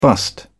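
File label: "bust-up", British English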